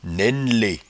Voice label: "nainnele", patois jura